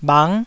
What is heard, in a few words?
"bhang9", taiwanese